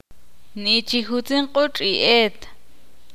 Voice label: ixil; ayer los perros estaban corriendo

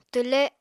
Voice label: "twle", Apatani

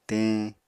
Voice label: "teñ", apatani

